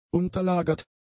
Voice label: "unterlagert", German; adjectives